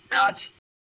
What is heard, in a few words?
Voice Acting es